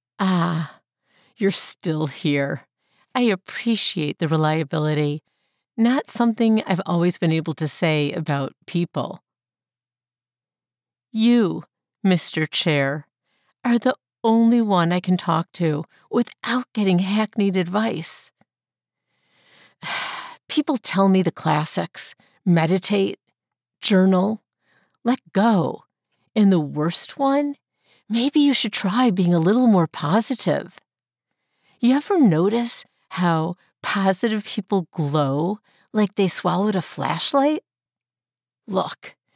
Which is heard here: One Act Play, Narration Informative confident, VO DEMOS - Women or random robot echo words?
One Act Play